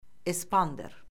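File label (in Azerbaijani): "espander", Azerbaijani; Orfoqrafiya Lüğəti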